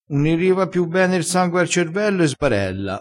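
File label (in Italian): "sbarella", vocabolario dialettale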